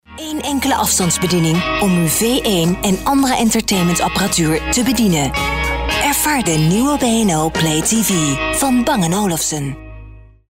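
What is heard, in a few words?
Dutch, female, commercial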